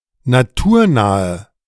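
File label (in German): "naturnahe", German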